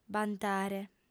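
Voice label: "bantare", female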